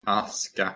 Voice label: "aska", Proto-Germanic